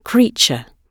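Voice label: "creature", British English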